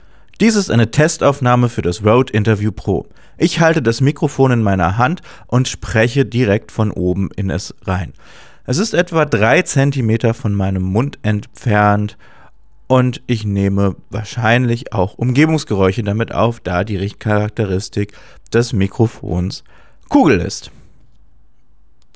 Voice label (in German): rode interview pro testaufnahme spotify; Aufnahme in internen Speicher als Spotify Datei exportiert